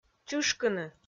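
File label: "чышкыны", Komi-Zyrian, female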